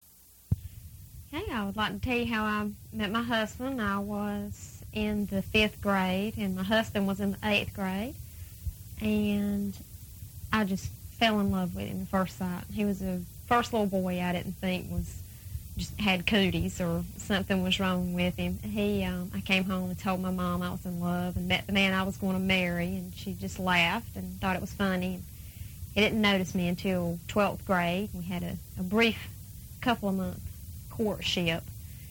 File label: married life, southern; speech; dialects